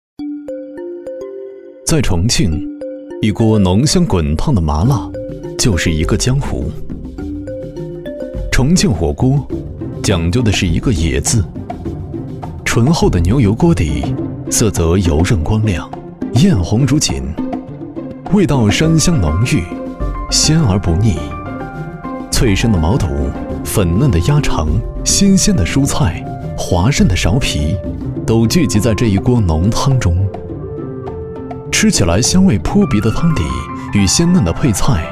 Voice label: 男 美食纪录片; 舌尖上的中国片段

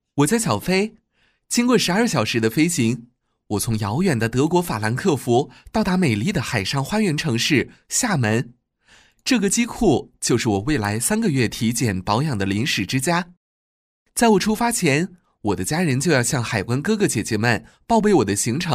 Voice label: 男 角色 年轻男声 亲切; 年轻活力 素人自然

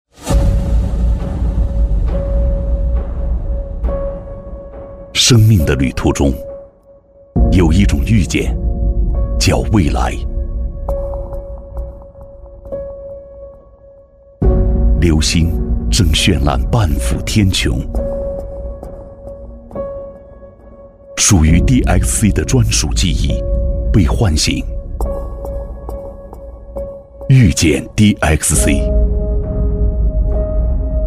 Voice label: 男 TVC广告 磁性大气; 大气激情 大气浑厚; DXC惠普服务

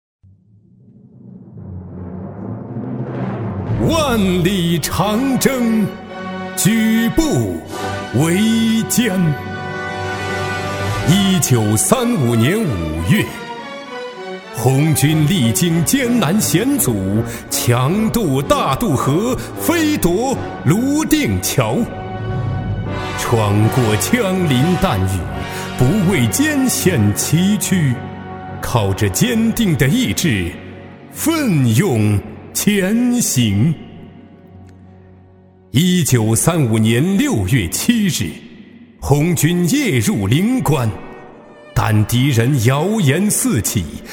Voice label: 男 朗诵 红色激昂; 中英双语 大气浑厚; 万里长征

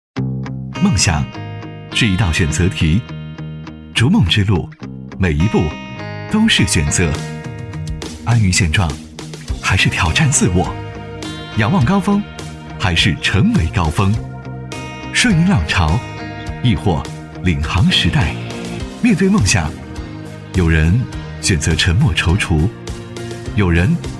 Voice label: 男 走心宣传片 梦想 轻快 走心; 男181-时尚科技

